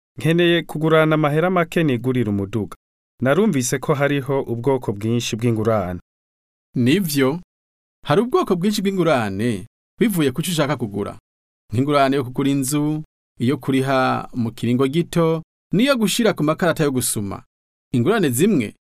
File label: types of credit kirundi